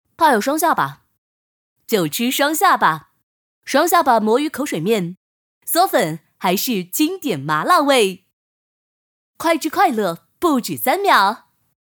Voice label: 女

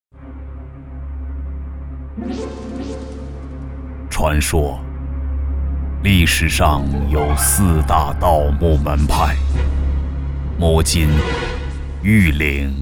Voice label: 男 恐怖感觉; 鬼吹灯

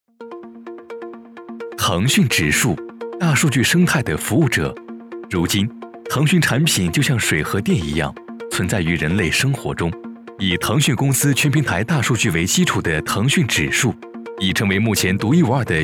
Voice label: 男 朴实 权威; 腾讯指数

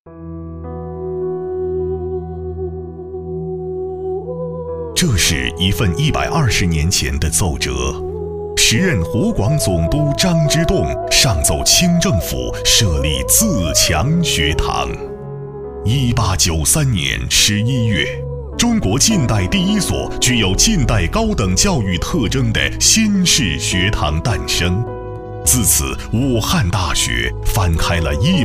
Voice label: 男 宣传片; 武汉大学

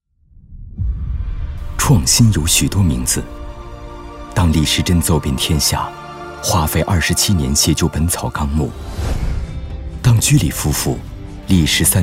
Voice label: 男 TVC 低沉 稳重; 创新的名字

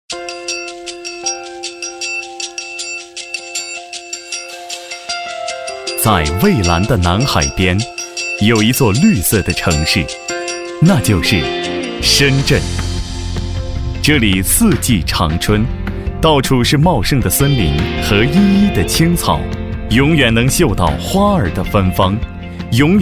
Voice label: 男; 大运会形象片

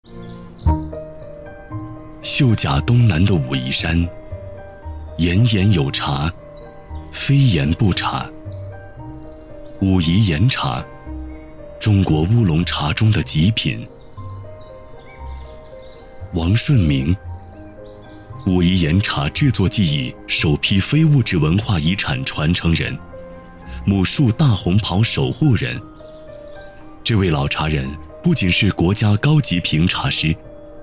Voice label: 男; 茶师

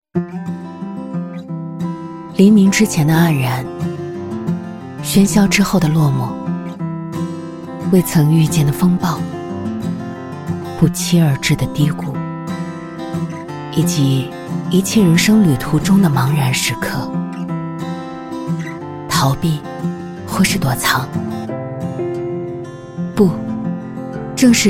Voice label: 女 启程 向上 信心 鼓舞人心

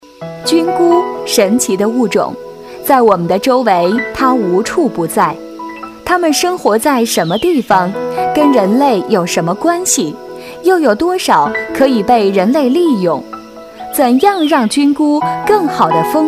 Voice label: 女; 菌菇

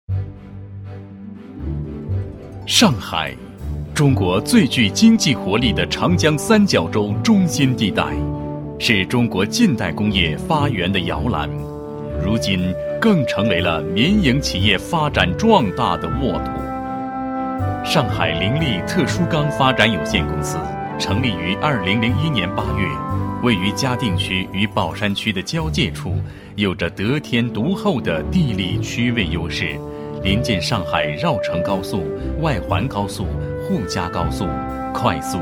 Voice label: 男; 凌厉钢铁

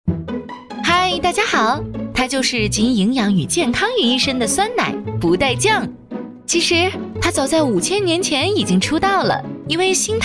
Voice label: 女 科普动画

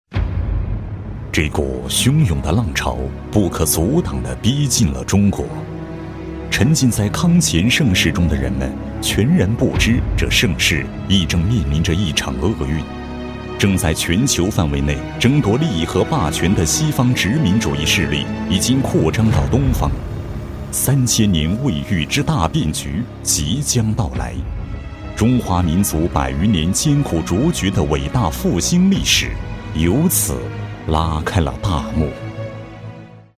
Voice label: 男 纪录片 低沉 厚重; 大国崛起

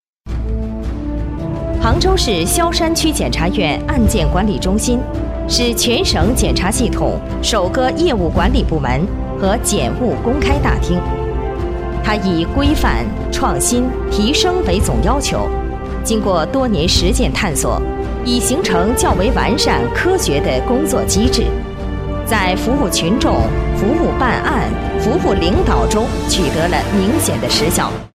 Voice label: 女; 萧山区检察院

